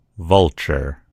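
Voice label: "vulture", American English; gcompris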